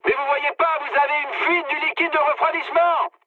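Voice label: damaged water, mood high, French; War Thunder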